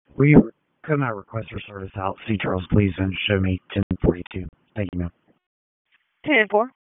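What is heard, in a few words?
Dispatch 1, P25; wake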